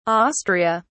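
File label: "austria", British English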